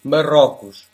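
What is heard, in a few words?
"moroco", Portuguese; gcompris; geography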